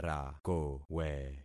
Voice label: "rakowe", concat syllables; Affixing experiment

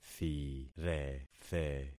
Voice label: "thirethe", concat syllables; Affixing experiment

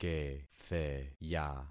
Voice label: "ketheya", concat syllables; Affixing experiment

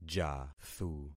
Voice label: "jathu", concat syllables; Affixing experiment

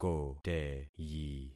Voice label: "koteyi", concat syllables; Affixing experiment

